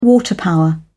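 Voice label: "water power", British English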